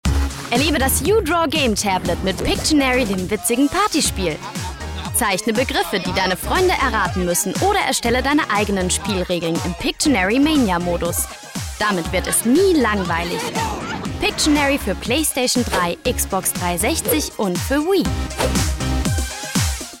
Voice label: TV Spot; Nintendo uDraw; Pictionary